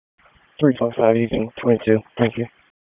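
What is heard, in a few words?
Raleigh Police Northeast District Dispatch, p25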